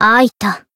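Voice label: finish, Japanese; eva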